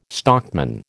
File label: "stockman", American